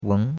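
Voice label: "weng2", Mandarin Chinese; Cours-de-Chinois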